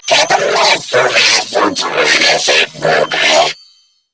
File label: Cover Me Grineer Female; en; New War; Narmer Grineer